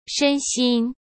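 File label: "身心", Mandarin Chinese; chinese-flashcards; hsk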